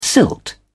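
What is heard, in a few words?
"silt", British English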